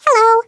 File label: "Hello", American; Speech; Worms Armageddon